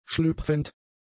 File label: "schlüpfend", German; adjectives